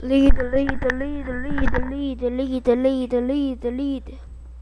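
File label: "lead", spoken word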